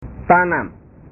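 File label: "tanam", Indonesian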